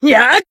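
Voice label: Voice attack, Japanese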